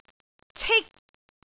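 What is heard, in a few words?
"cik1", Cantonese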